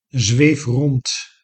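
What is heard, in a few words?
"zweef rond", Dutch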